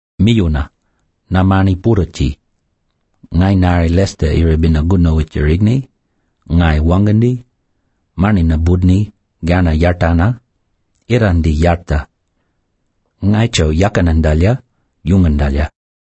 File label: welcome speech